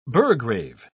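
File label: "burgrave", British English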